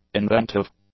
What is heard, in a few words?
"inventive", english; adjectives